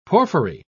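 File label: "porphyry", British English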